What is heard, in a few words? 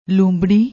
"loombarri", Punjabi